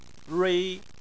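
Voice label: "rui", Chinese, tone 1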